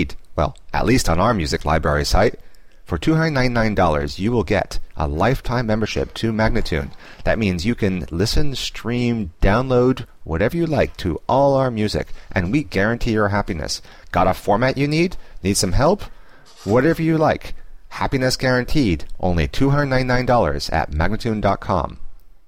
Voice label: 127 F spoken, World Loops; MagnaLoops